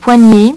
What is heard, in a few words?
"poignet", French; HyperSound™2